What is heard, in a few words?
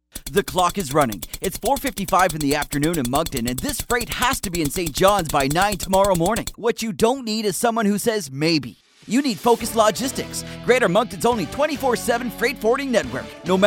radio ad; focuslogistics